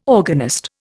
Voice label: "organist", Indian